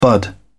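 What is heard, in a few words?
"bud", English, British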